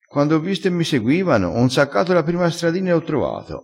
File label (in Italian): "insacca", crespinese, vocabolario dialettale